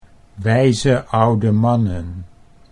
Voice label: "wijze oude mannen", Dutch

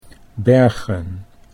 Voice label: "Bergen", Dutch

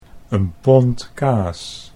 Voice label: "een pond kaas", Dutch

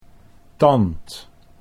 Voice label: "tand", Dutch

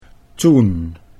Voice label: "toen", Dutch

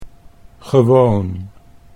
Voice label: "gewoon", Dutch

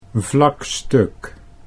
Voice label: "vlakstuk", Dutch

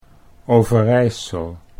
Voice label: "Overijssel", Dutch

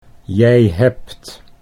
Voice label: jij hebt, Dutch

